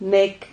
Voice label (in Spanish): "nec", Silabas